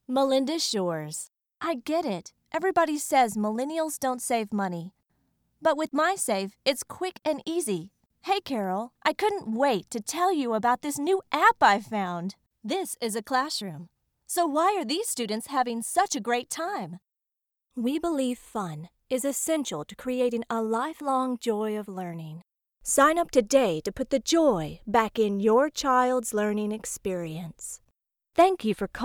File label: neutral American accent, Medium high, youthful Female Voice Over Talent